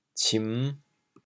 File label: "cim5", chaoyang